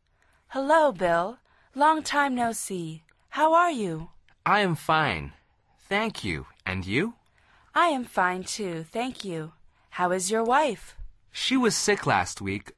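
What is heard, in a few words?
dialog, Conversación en Ingles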